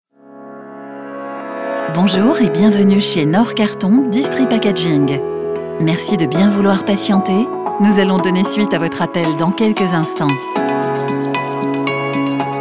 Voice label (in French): attente telephonique voix off; nord carton